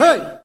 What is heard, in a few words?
"hey", male